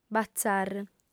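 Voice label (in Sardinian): "bazàr", female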